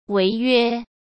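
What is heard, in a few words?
"违约", Mandarin Chinese; chinese-flashcards; hsk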